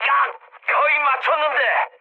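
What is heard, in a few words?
Korean bomb failure mood high, speech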